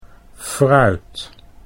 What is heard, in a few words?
"fruit", Dutch